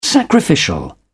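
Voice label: "sacrificial", English, British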